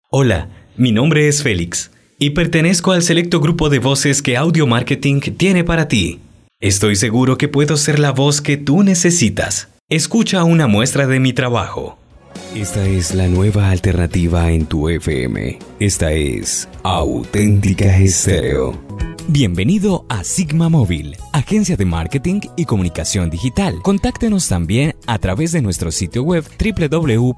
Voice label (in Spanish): Locutor colombiano